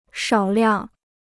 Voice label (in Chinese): "少量", Chinese